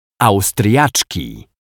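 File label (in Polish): "Austriaczki", Polish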